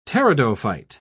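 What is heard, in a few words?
"pteridophyte", British English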